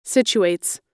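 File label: "situates", American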